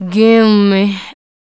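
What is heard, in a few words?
vocal female, Dance Vocals, spoken words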